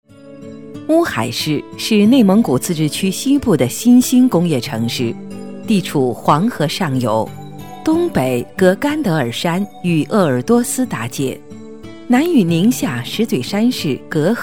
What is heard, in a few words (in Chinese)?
女 专题 政府 稳重; 乌海介绍